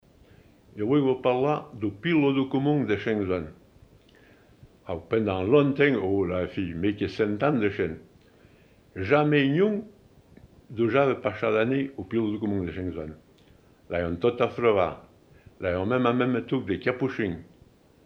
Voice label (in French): patois de Grimentz Anniviers histoire; patois valaisans; La salle communale de StJean